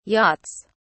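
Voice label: "yachts", British English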